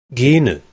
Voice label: "genh1" PIE

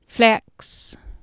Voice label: "fleax", Anglo Saxon

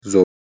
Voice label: "zu", Classical Persian

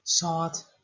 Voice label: "salt", Proto Germanic

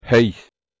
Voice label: "h1eiHs" PIE

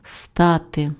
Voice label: "stati", Ukrainian

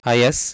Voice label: "ayas", Sanskrit

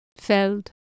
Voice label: "feld", Anglo Saxon

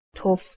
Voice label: "tuf", Persian

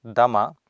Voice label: "dama", Sanskrit

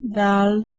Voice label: "dael" Anglo Saxon

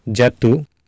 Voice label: "jatu", Sanskrit

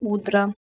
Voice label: "udra", Lithuanian

